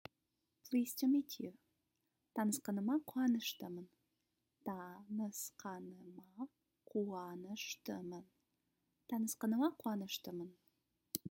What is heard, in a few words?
Pleased to meet you, kazakh